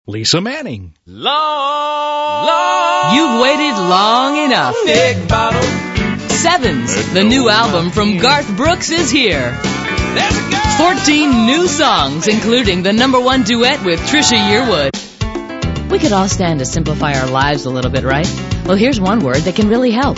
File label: female; Spotland Productions